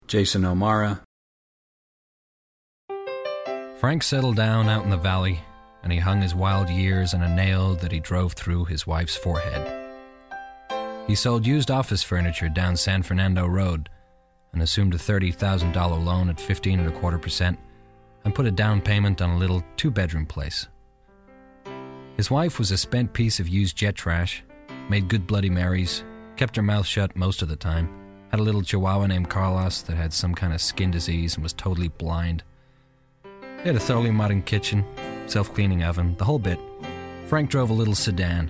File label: commercial; Innovative LA